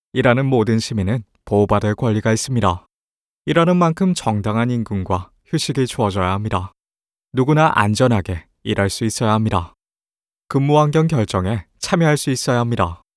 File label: korean political ads